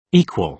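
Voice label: "equal", British English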